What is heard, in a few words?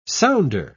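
"sounder", British English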